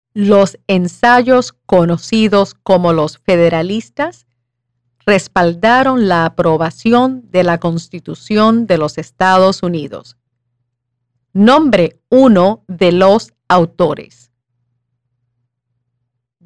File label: Question Spanish; Citizenship; Naturalization Test; Redesigned Natz Test; 100 Test Q&A